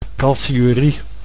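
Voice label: "calciurie", Dutch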